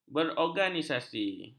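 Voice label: "berorganisasi", Malay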